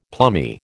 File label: "plummy", American